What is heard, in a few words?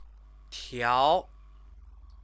"tiao", Chinese